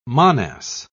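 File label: "monas", British English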